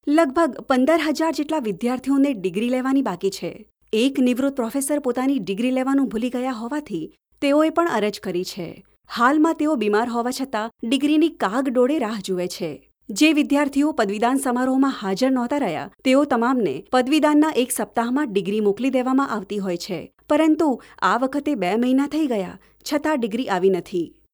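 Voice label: Gujarati, female, narration; voice over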